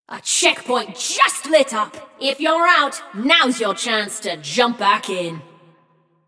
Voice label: Checkpoint Opened, Announcer; Flux; Scraprun